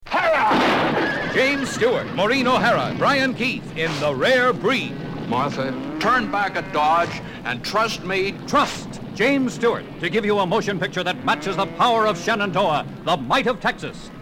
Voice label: Radio Spot; Rare Breed